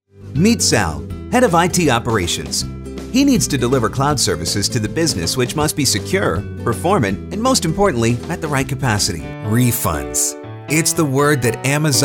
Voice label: Explainer